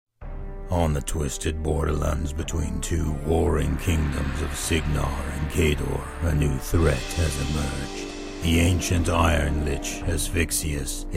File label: Mangled Metal Narrator